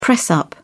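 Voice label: "press-up", British English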